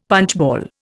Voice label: "punchball", Indian; Dictionary

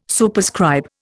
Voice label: "Superscribe", Indian

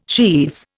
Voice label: "sheaves", Indian

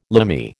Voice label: "Limey", American

